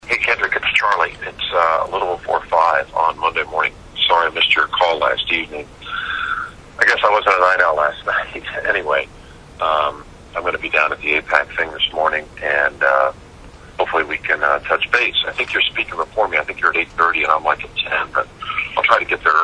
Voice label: voicemail